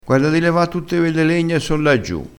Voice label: "e come dillo", crespinese

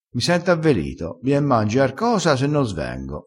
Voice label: "avveli", crespinese

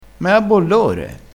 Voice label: "a bollore", Crespinese

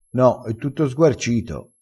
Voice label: "sguarcito", crespinese

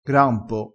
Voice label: "grampo", crespinese, vocabolario dialettale

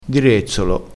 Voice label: "direzzolo", crespinese

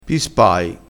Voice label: "pispai", crespinese

